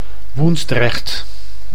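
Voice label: "Woensdrecht", Dutch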